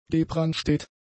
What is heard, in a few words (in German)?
"gebrunstet", German; adjectives